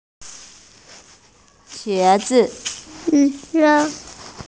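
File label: "qie2zi5", Mandarin Chinese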